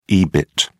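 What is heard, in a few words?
"ebit", British English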